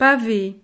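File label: "pave", French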